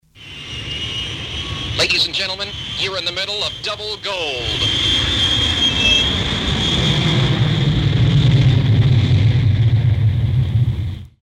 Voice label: sweeper; WCOD Double Gold